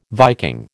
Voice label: "Viking", American